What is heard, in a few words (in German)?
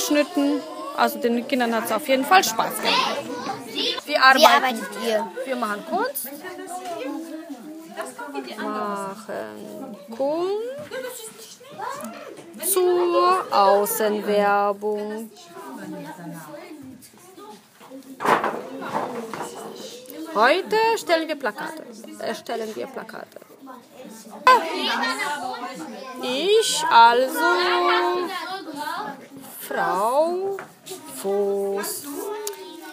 voice memos interview werbung; iphone